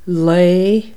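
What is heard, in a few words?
Irish day genitive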